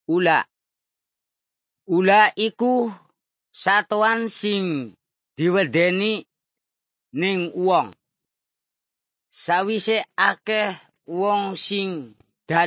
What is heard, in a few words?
indramayu lajer; parables about animals; javanese; bible